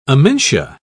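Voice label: "amentia", English, British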